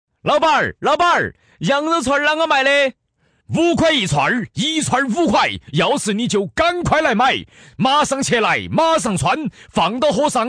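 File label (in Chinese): 男方言; 四川方言; 大足黑山羊烤串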